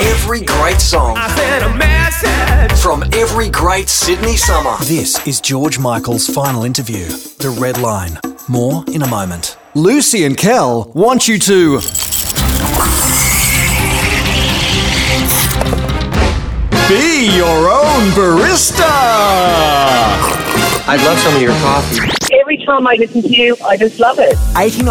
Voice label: Radio Promos

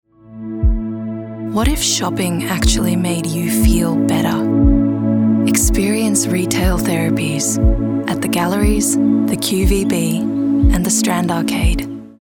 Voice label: ASMR Retail; Therpaies

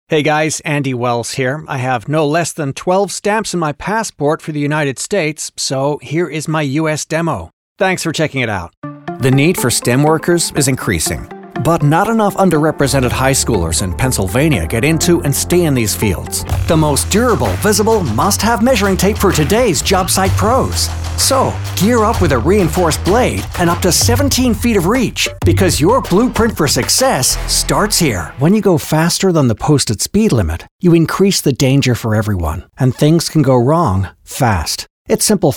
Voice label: US Accent; EM Voices

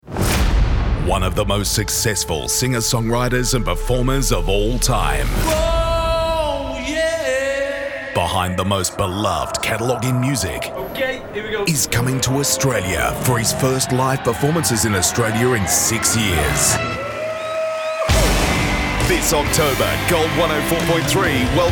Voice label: concert promos

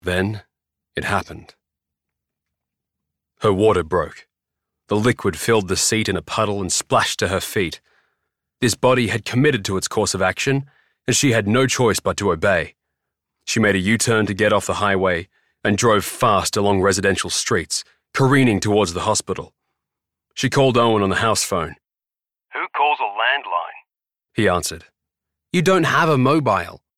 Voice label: AUDIOBOOK

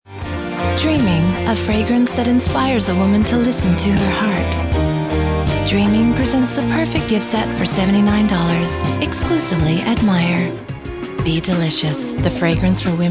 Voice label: soft

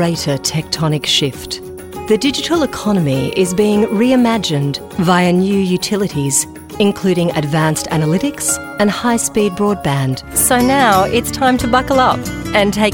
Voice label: Corporate; EM Voices

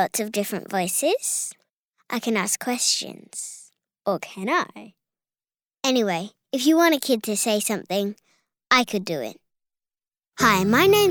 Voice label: Kids

